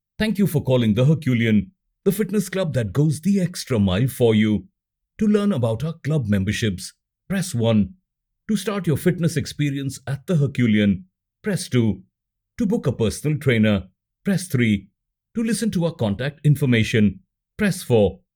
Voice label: english indian ivr